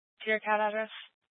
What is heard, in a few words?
Police Department Dispatch, scanner